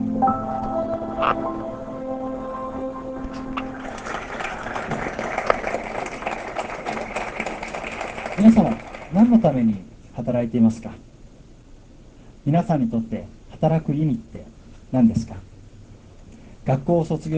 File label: japanese